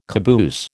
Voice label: "caboose", American